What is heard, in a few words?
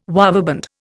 "waveband", Indian